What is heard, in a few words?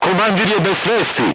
voice message commander contused, crew tanks serbian